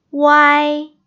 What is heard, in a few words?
"uai", Pinyin Finals